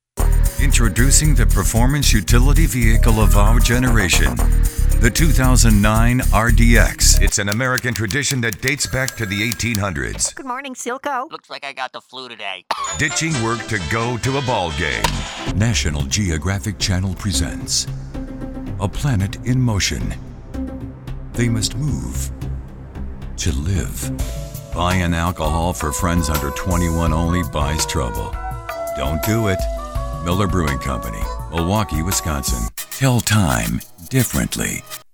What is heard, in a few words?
commercial, men